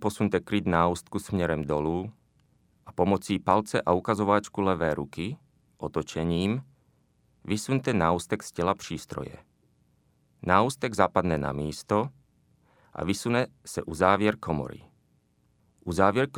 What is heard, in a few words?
Czechoslovakian